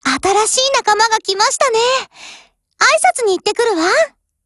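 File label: BUILD OVER Japanese, Voice; GF Alarm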